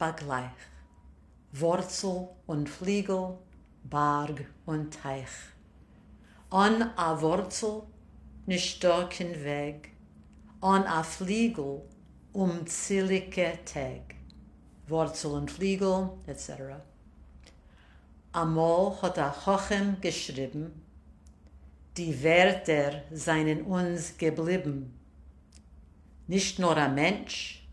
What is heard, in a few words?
pronunciation nonstop; Vortsl Un Fligl